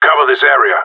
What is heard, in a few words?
hold here, radio; GSG9